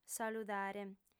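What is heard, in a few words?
"saludare", female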